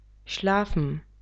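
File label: "schlafen", German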